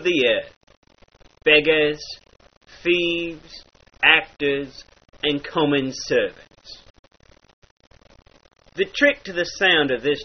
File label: lower, dialects